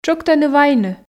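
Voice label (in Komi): "тшӧктыны вайны", Komi, female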